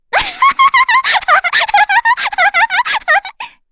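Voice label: Vox Happy, voices heroes English; kingsraid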